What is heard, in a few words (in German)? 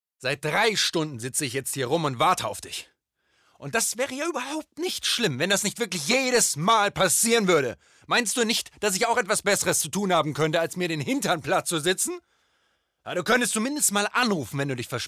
Schauspiel Veraergert